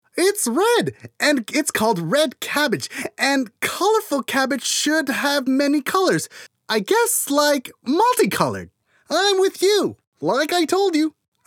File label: cartoon US